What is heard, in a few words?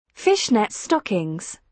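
"fishnet stockings", British English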